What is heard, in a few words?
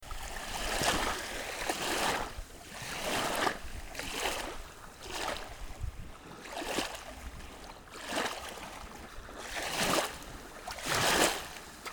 the cay booktalk